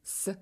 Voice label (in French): son s, russe